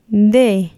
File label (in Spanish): Mole, Mixteco